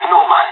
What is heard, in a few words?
disagree, pirate; Counter Strike Model Pack; CS:GO